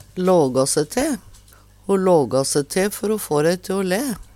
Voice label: laga se te, Numedalsmål; Ho lågå se te før o få dei te o læ.

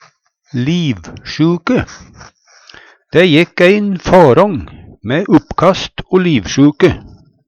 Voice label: livsjuke, Numedalsmål; Substantiv hokjønn

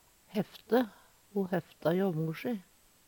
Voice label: hefte, Numedalsmål; Ho hefta jå mor si.

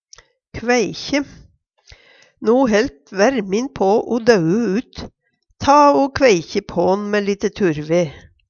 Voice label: kveikje, Numedalsmål

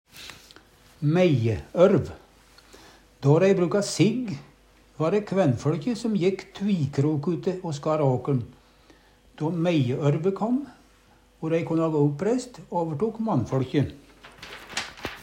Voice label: meieorv, Numedalsmål

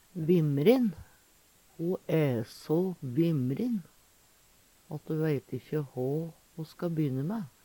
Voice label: "vimmrin", Numedalsmål; Ho æ so vimmrin at ho veit`kje hå ho ska by`ne mæ.; Ordklasse: Adjektiv

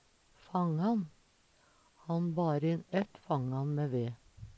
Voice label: "fangan", Numedalsmål

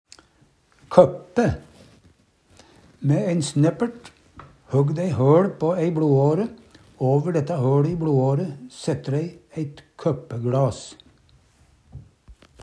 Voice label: koppe, Numedalsmål

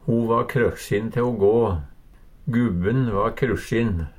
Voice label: krokksin, Numedalsmål

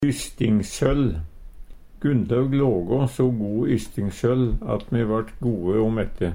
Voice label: "ystingssøll", Numedalsmål; Ordklasse: Substantiv hankjønn; Hushald, mat, drikke; Gunlaug lågå so go ystingssøll at me vart gode o mette.

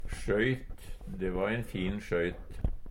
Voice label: sjoyt, Numedalsmål

